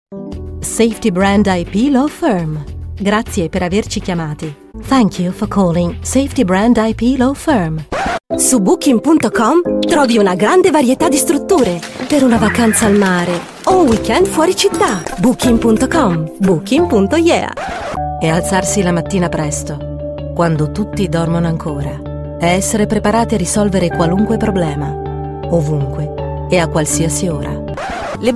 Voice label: italian commercial